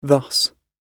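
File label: "thus", British English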